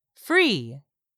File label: "FREE", english; 3000 words